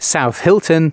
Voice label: announcements male